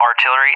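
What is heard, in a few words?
"artillery", English, USA; Target; DCS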